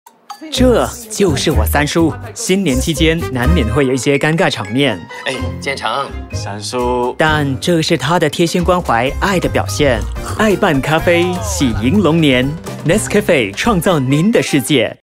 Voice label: Mandarin - Guy Next Door, Conversational, Friendly, Casual; Nescafe